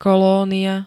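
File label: "kolónia", Slovak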